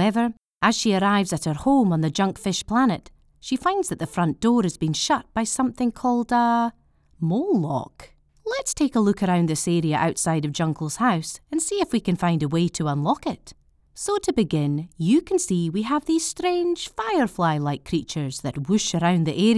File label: Game Walkthrough Strong Scottish; Tohu